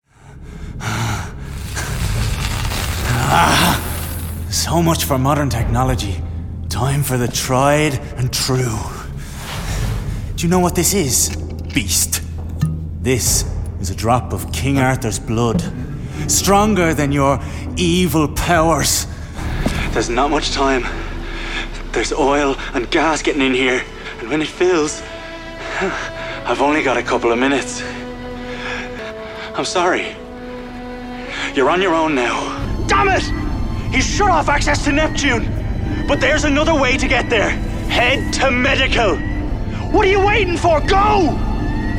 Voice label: Computer Games, regional accents